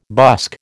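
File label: "busk", American English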